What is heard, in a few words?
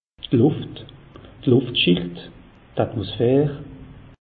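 "luft", Alsatian; meteo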